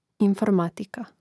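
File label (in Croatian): "informatika", hrvatski; mreznik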